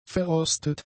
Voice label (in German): "verrostet", german; adjectives